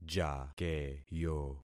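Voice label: "jakeyo", concatenated syllables; Affixing experiment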